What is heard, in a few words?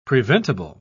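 "preventible", British English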